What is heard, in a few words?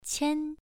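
"qian", Mandarin Chinese syllable, first tone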